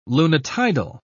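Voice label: "lunitidal", British English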